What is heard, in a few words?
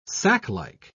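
"saclike", English, British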